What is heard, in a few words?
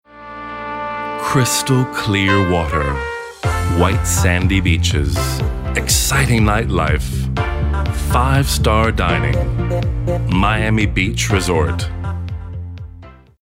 male american english travel comercial